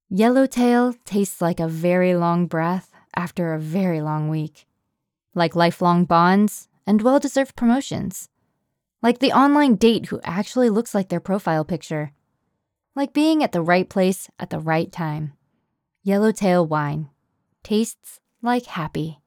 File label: Commercial Calm Conversational; YellowTail